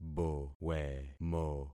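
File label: "bowemo", concatenated syllables; Affixing experiment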